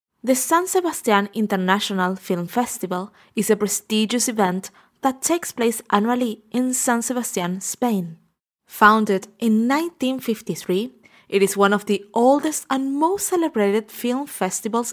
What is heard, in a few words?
Home recording